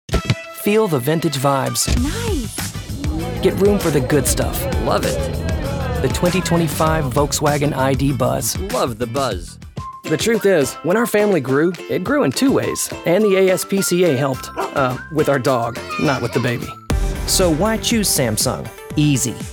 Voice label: men commercial

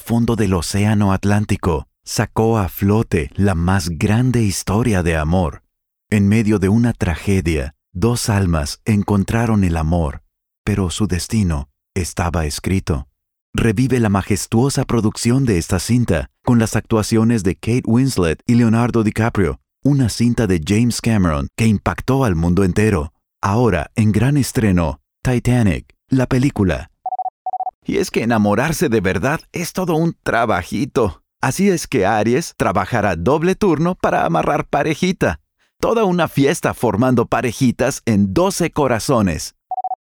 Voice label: spanish promos

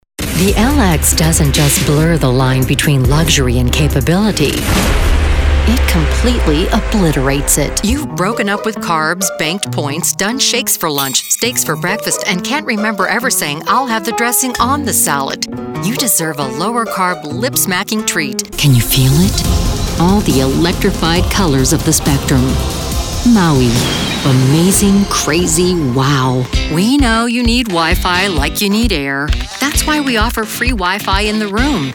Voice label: women commercial

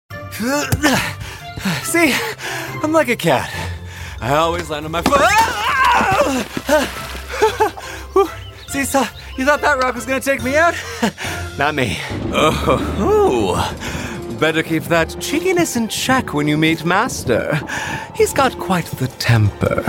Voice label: men, video games; Queer Italian-American, originally from New York. Capable of bringing the sly protagonist, gruff hunter, guy-next door, or fabulous villai

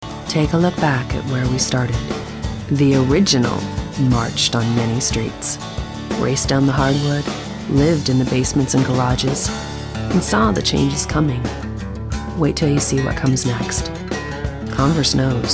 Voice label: women elearning; High performance drama; just like her name! Confident, sassy, believable and real...from soccer mom to the girl you take home to mom.

